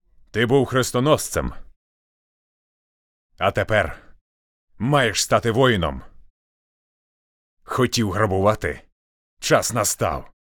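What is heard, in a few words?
ukrainian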